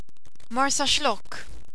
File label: marsaxlokk, Maltesisch